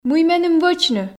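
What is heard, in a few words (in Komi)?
мый меным вӧчны, Komi, female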